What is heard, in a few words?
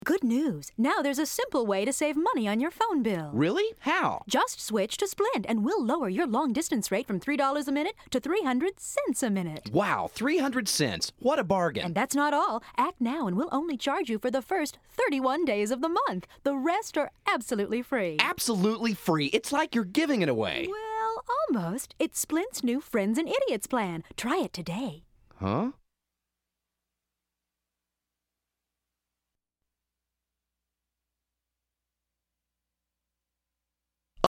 Radio parody spot; SPLINT Phone Rate